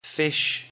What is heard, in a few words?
"fish", English; MEAT, POUTRY AND SEAFOOD